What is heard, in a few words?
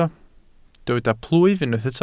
"plwyf", Welsh